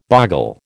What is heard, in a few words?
"boggle", American